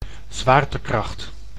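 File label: "zwaartekracht", Dutch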